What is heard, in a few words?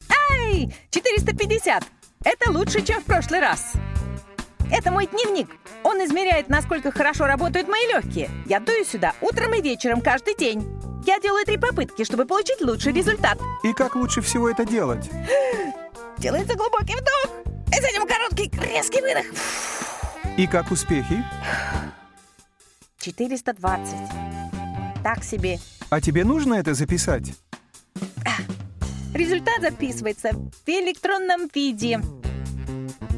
Russian